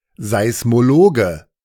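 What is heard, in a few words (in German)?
"Seismologe", German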